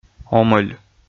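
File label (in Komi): "омӧль", Komi, male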